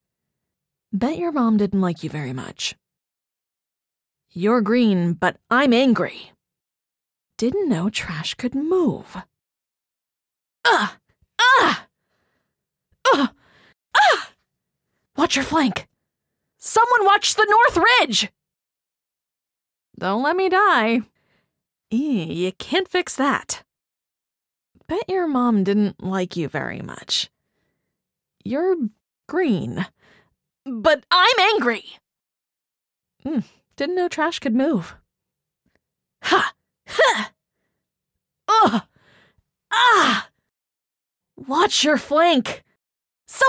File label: Recruit Female